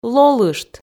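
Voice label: "лолышт", Komi, female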